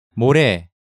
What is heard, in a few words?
"more", Korean; Hangeul sounds